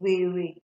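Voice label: "were", Nigerian Pidgin, female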